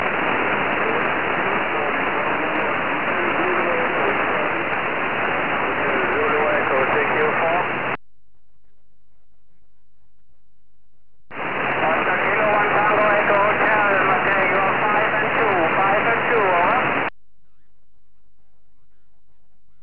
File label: qso 4m